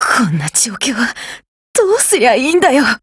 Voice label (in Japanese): RETREAT, JP; Mk46